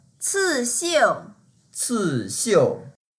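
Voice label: "ci4xiu4", Mandarin Chinese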